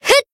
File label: Voice attack, jp; flammelapis